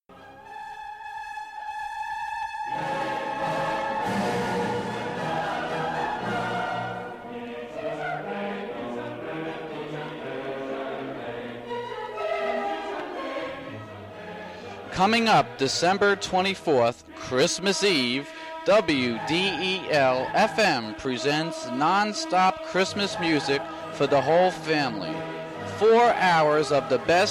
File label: radio commercial; wdel